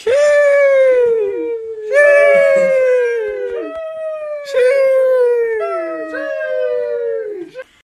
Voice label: SHEESH SOUND EFFECT